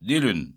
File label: monday, Breton